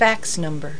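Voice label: "fax no", fax number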